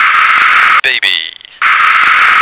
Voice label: "baby", American

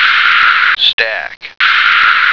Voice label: "stack", American

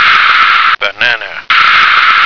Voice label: "banana", American

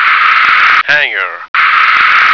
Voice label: "hanger", American

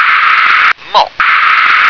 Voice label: "mop", English

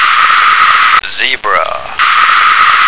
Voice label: "zebra", American

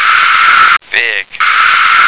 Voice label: "fig", American

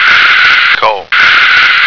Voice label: "comb", American

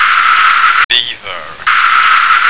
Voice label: "beaver", American

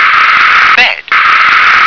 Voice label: "bed", English

